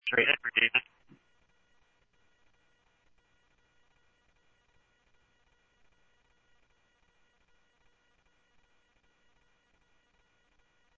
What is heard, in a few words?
Police, scanner audio trunk